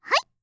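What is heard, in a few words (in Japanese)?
"はい！", 日本語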